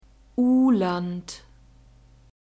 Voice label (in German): "uhland", German; alphabet